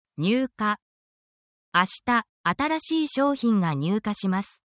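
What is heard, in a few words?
Japanese word, essential Japanese phrases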